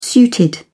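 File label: "suited", British English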